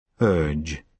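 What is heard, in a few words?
"urge", British English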